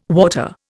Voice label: "water", Indian